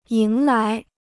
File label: "迎来", Chinese